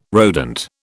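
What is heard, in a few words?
"rodent", British English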